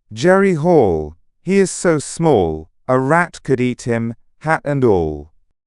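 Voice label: male British, classic rhymes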